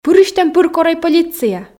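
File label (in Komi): пырысьтӧм-пыр корӧй полиция, Komi, female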